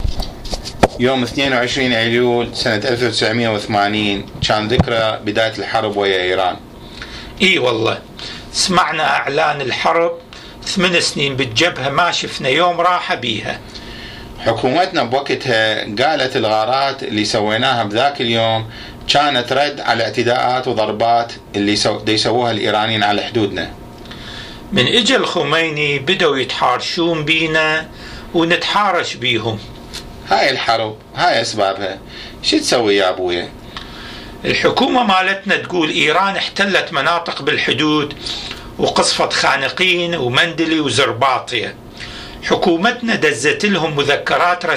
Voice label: Dialogue about War memories, Iraqi Dialect